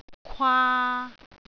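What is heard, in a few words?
"kwaa3", Cantonese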